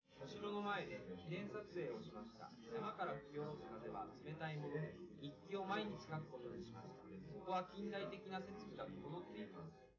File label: male4 liverec 250ms 1m sim